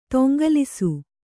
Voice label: "ತೊಂಗಲಿಸು", Kannada; toŋgalisu